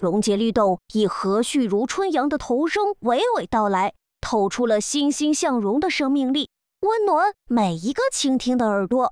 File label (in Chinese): 童声, 阳光顽皮男; 中、英; longjielidou v2